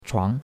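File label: "chuang2", Chinese; Cours-de-Chinois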